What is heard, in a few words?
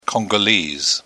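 "congolese", British English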